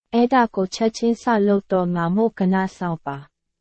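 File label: Burmese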